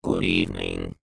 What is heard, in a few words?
science fiction robot says good evening